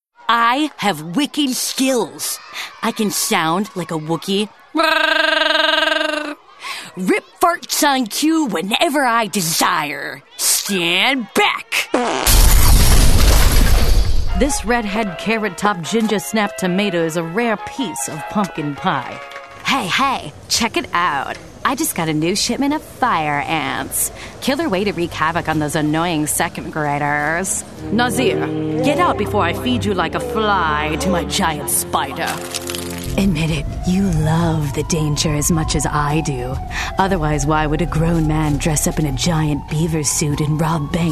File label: Animation